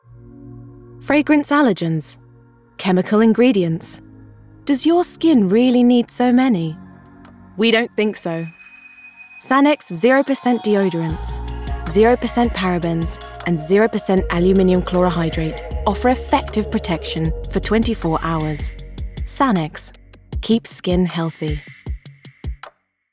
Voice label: Fresh, Bright, Clear; Sanex

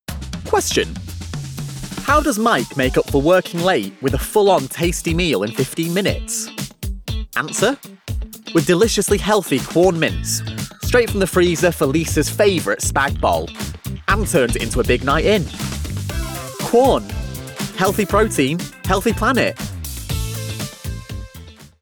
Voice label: Bubbly, Friendly; Quorn

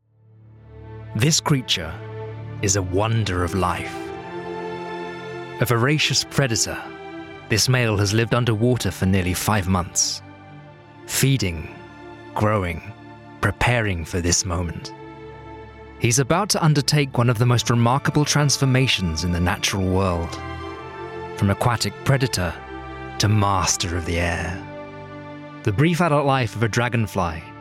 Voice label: Documentary Reel

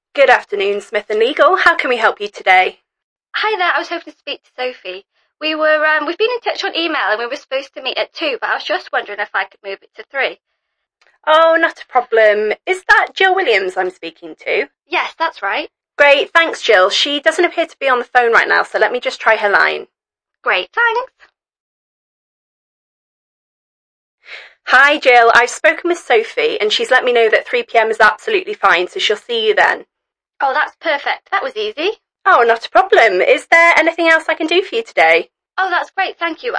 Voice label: Call Audio

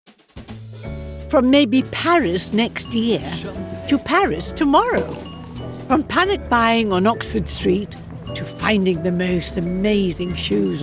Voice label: Clear, Vibrant, Informative; Eurostar

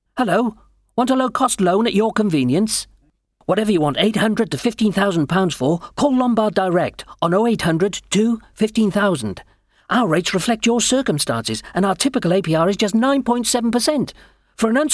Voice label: Friendly, Relatable; Lombard Direct

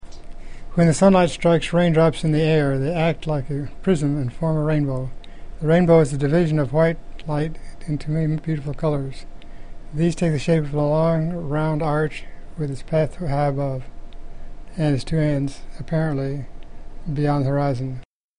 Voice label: parkinson

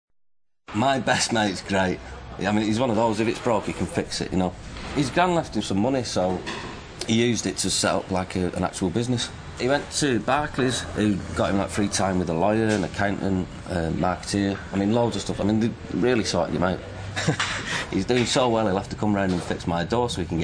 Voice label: Matey, Natural, Everyman; Barclays

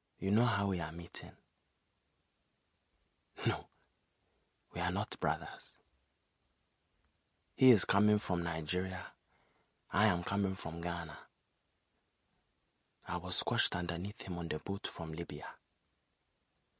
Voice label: West African Accent

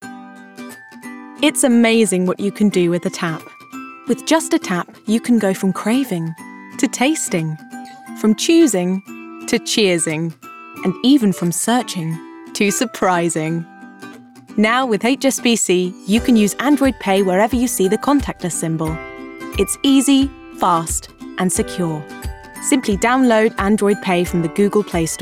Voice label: Warm, Friendly, Informative; HSBC

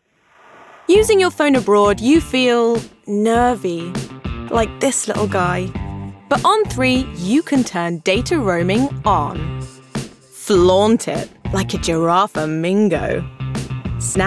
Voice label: Playful, Friendly; Three

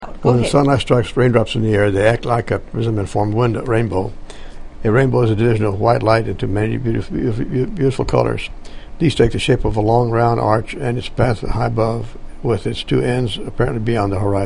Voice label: parkinson

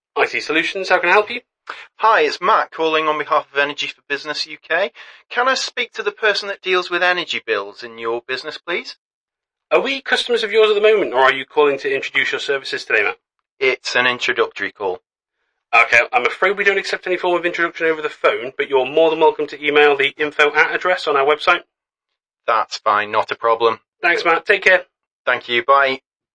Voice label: Call Audio

